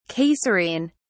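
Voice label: "caesarean", British English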